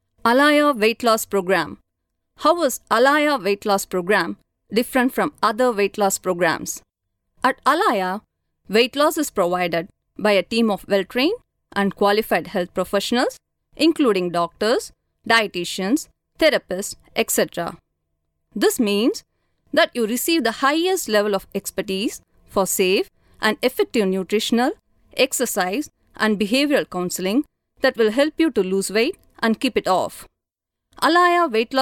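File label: narration english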